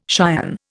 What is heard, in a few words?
"cion", Indian